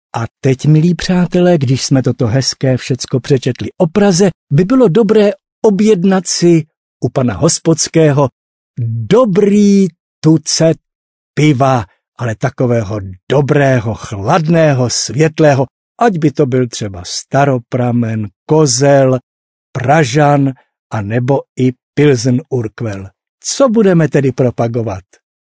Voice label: czech male

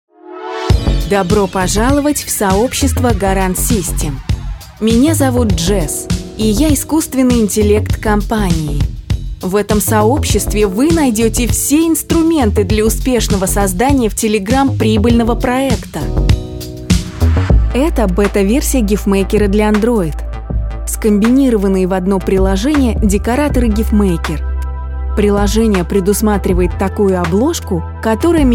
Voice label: RUS

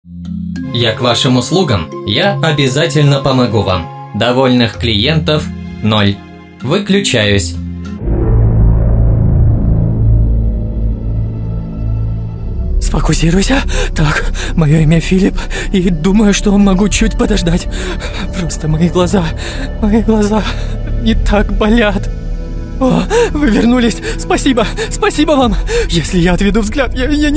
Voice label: RUS

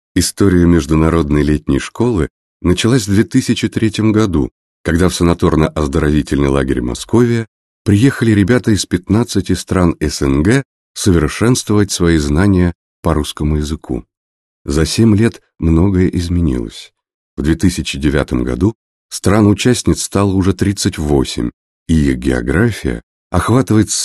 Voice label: russian male

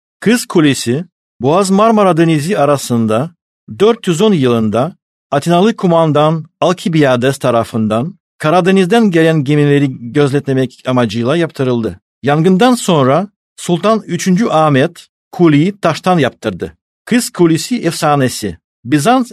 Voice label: turkey male

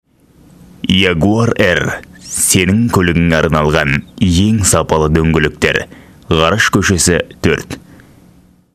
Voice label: kazakh male

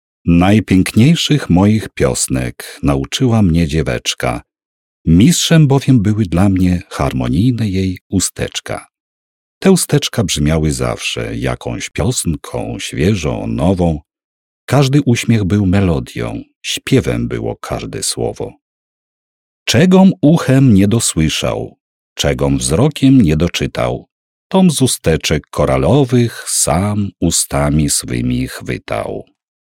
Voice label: polish male

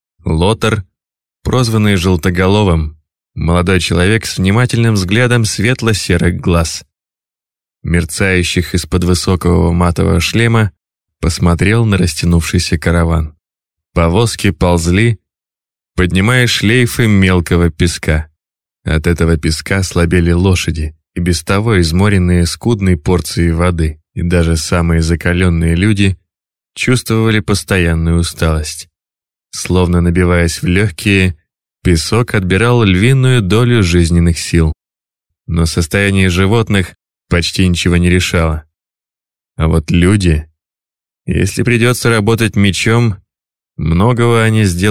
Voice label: RUS

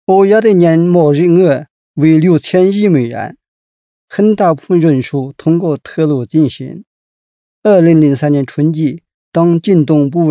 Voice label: chinese male

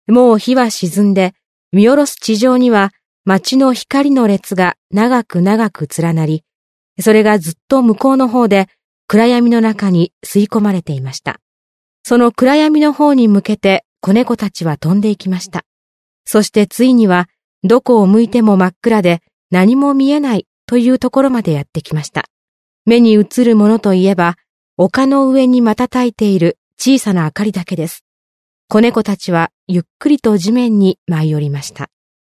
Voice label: japanese female

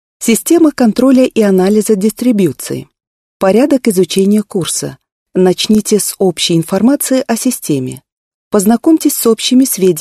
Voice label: russian female

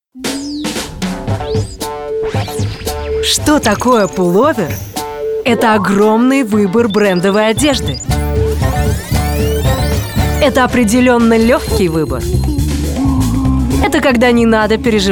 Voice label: rus

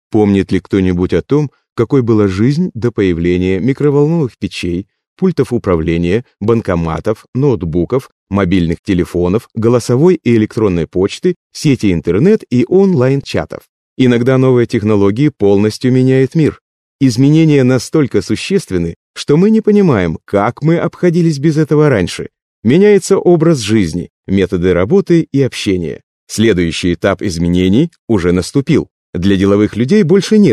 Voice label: russian male

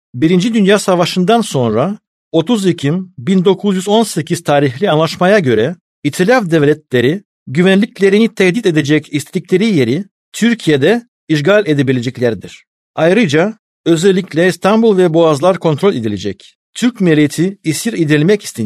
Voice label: turkey male

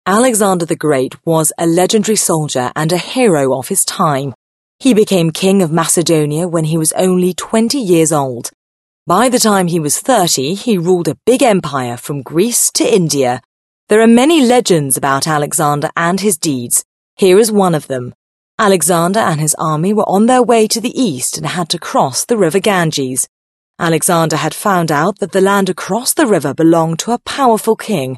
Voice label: british female

